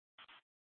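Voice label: Gilbert, Fire Department, scanner; C4 Gilbert, QC, Superstition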